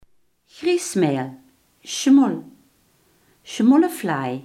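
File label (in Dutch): griesmeel, Limburgse gesproken woordenboeken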